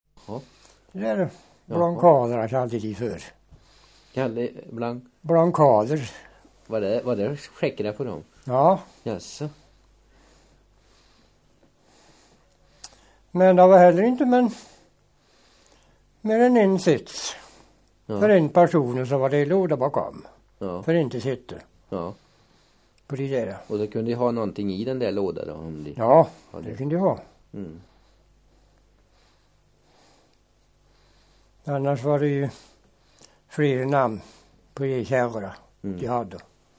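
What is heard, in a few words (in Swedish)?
Väse Värmland, Svenska sagor, dialekter; Folkminnen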